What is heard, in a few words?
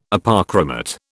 "Apochromat", British